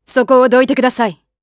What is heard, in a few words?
Vox Skill jp; Glenwys; kingsraid